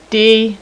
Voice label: "d" female, alphabet; Web Clip Empire 150,000

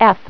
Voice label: "F", Female; TalkingKeys

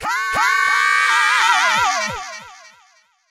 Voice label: VOICEFX TEKNO 140, Techno, Voice

